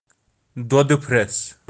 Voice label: "dwodi phrass", kashmiri

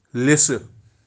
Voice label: "lissi", Kashmiri

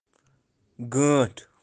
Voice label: "Ganth" eagle, kashmiri

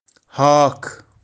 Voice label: "haakh", Kashmiri

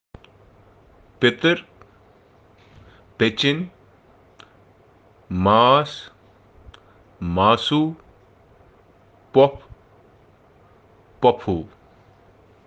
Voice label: Kashmiri relations petir pechany

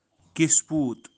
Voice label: "kispoot" "kisput", birds of kashmir

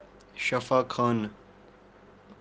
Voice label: "shafakhaanu", hospital in kashmiri

